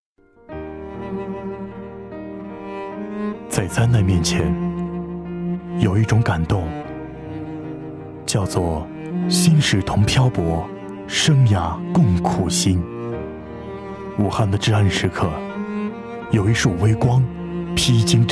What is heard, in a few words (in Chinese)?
旁白; 春风来